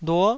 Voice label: "luo9", Taiwanese syllable